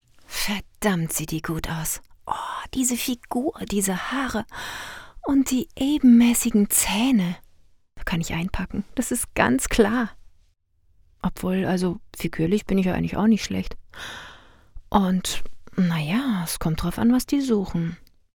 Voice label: innerer dialog eigener text; LOFT VOICES

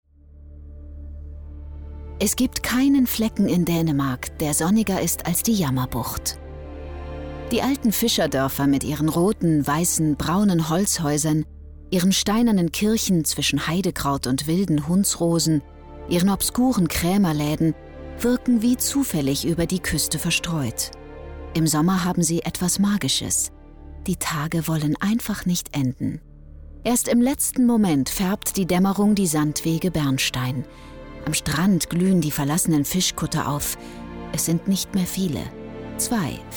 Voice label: doku; jammerbucht; LOFT VOICES

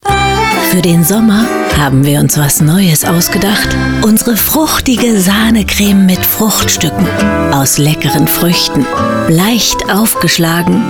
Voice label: Hoerbeispiel Werbung

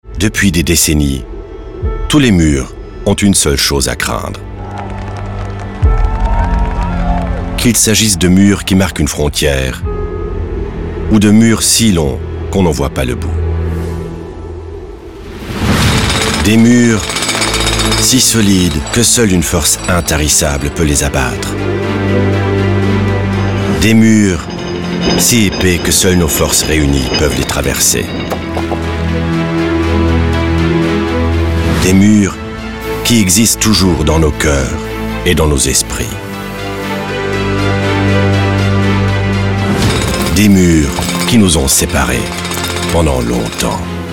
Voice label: Imagefilm, Commercial, French; Bosch Nitrudor

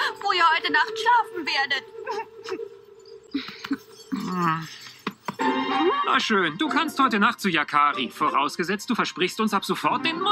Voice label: Trickstimme Streifenhörnchen; Yakari

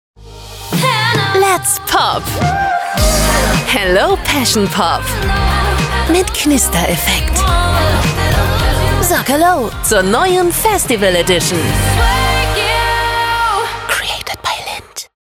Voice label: Werbung, German; Werbung | Lindt pop

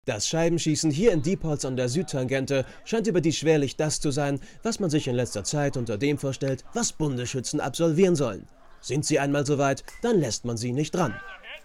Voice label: hoerspiel trick dialoge manoever

